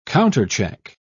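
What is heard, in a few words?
"countercheck", British English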